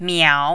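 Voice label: "miao", Mandarin, third tone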